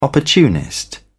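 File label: "opportunist", British English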